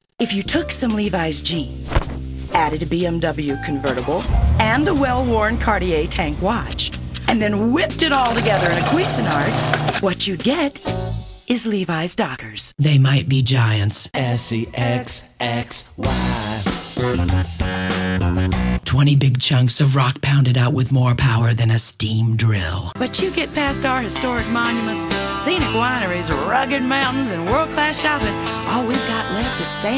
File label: F commercial, voiceover womens